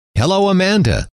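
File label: hello, male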